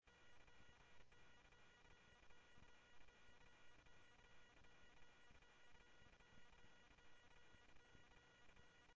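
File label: police department, scanner audio trunk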